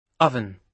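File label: "oven", British English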